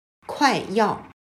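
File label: "快要", Chinese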